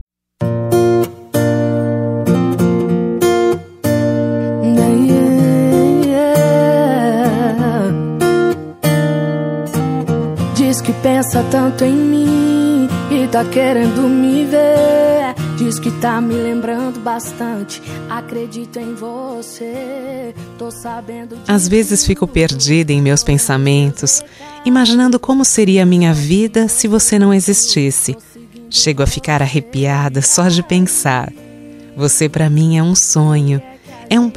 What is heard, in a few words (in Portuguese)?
ROMANTICA F